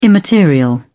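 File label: "immaterial", British English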